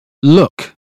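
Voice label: "look", British phonetics; 英语音标发音（剑桥版）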